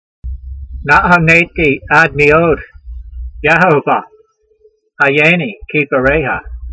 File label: voice, hebrew language; Psalm 119:107; na'a'naytee ad-me'od, yehovah ha'yay'nee keedvareyha